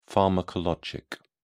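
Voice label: "pharmacologic", English, British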